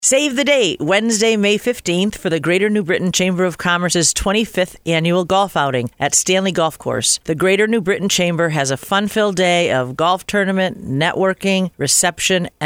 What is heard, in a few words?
Radio Ad; Greater New Britain Chamber Golf Outing